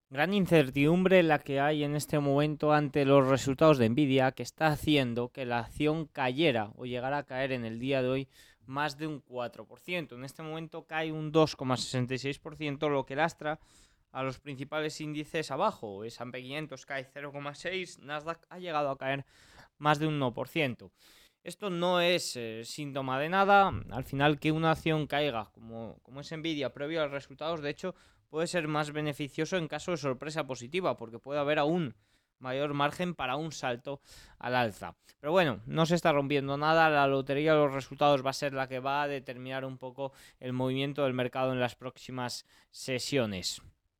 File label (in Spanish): radio; noticias bolsa